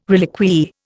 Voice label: "reliquiae", Indian

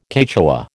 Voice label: "Quechua", American

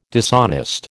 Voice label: "dishonest", American

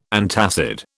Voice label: "antacid", British

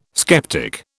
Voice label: "sceptic", British

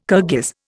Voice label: "Kirghiz", Indian

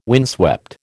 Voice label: "windswept", American

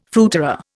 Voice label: "fruiterer", Indian

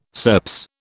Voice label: "SERPS", British

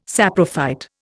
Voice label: "saprophyte", Indian

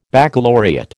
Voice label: "baccalaureate", American

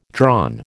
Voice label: "drawn", American

